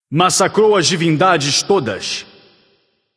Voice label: alert notify kill all, portuguese; caster

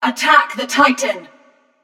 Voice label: vox attack m; smite; nemesis; voicepack

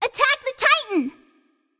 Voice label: vox attack m; smite; scylla

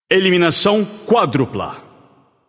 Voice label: alert notify kill, portuguese; caster

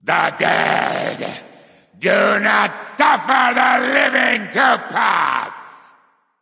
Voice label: kill streak, voicepack; smite; ahpuch

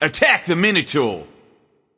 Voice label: vox attack m; smite; sobek

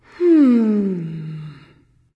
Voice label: Thinking; smite; izanami

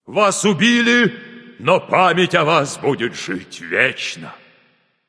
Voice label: slain you, russian; caster

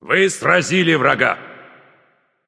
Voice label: slain you enemy, russian; caster

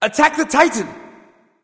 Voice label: vox attack m; smite; xbalanque

